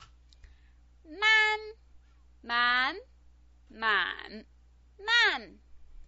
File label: "man", Chinese pinyin